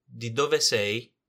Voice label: "di dove sei", Italian